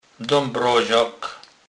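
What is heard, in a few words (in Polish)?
"dabrozak", Kurpie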